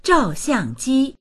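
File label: "zhao4xiang4ji1", Mandarin Chinese; 照相机; カメラ; dictionary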